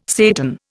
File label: "Satan", Indian